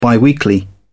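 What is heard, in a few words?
"biweekly", British English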